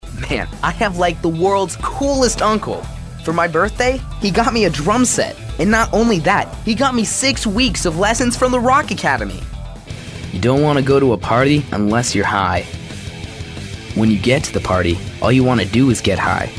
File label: Commercial